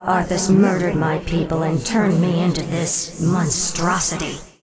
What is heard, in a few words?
Angry; warcraft3; Evil Sylvanas